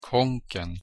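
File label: "kånken", Swedish pronunciation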